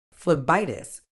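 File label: "phlebitis", medical English, British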